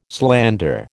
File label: "slander", American